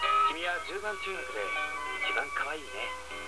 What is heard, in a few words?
flirt